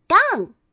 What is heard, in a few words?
gang, man; mahjongjinghai